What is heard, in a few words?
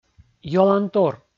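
"юалантор", Komi, male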